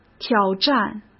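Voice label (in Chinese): "挑战", Mandarin; chinese flashcards; hsk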